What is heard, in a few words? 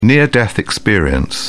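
"near-death experience", British English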